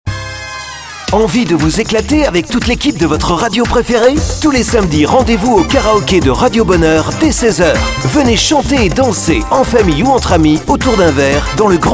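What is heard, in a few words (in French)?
voix homme; catalogue de voix off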